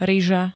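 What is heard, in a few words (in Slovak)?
"ryža", Slovak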